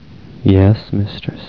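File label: mistress, Male voice, "Yes, Mistress."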